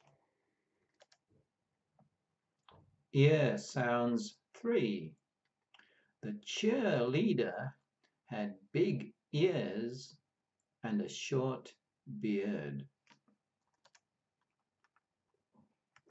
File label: Ear sounds, pronunciation of australian english